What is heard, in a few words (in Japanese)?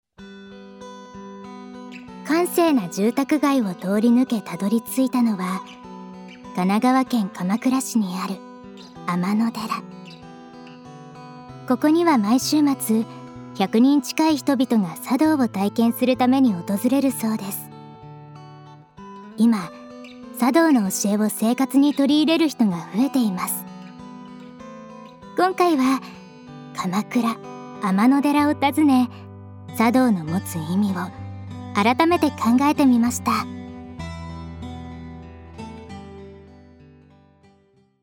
ナレーション